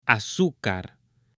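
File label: "azucar", Spanish, male; AppLenguaje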